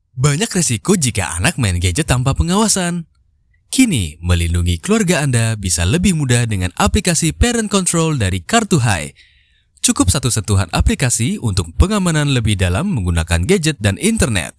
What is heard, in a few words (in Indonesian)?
Casual Indonesia